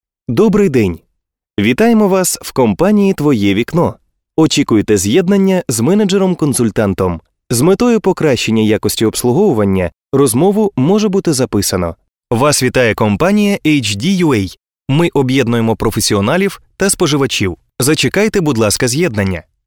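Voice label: locutor ucraniano ukrainian voiceover, Ukrainian male voice over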